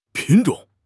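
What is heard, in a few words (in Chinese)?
"品种", Chinese; HSK6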